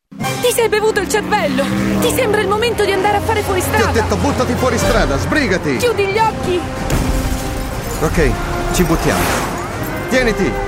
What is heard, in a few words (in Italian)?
trailer libro; una vita in gioco